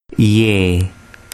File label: "ye", urdu